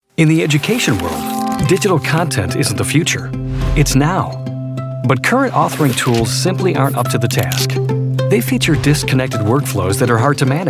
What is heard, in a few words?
Narration